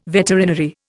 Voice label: "veterinary", Indian